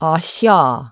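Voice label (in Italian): Fricative alveopalatali; IPA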